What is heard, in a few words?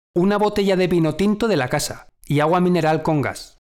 conversation